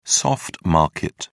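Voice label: "soft market", British English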